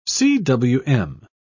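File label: "cwm", British English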